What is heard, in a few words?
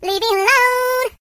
"Leave me alone", Singer; hedgewars